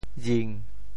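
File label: "jing7", Teochew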